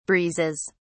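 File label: "breezes", British English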